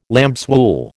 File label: "lambswool", American